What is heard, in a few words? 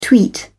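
"tweet", English, British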